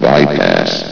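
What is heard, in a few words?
"bypass", English, station announcer voice; S.P.L.U.R.T-Station-13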